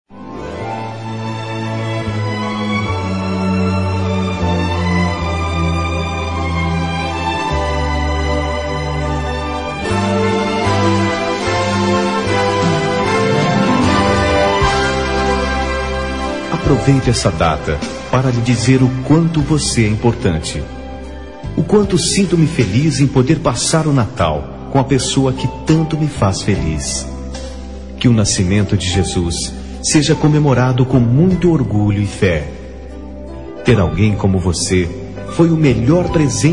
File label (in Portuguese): Mensagem, Voz Masc; Voce E Especial; Natal E Ano Novo